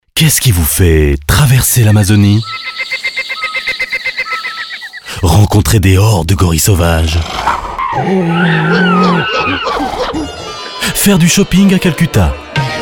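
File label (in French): spot, spots radio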